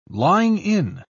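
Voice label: "lying-in", British English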